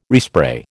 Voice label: "respray", American